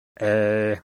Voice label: retracted tongue root close mid front unrounded; IPA